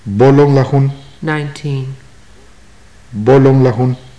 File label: numbers, maya